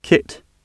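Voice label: "kit" English word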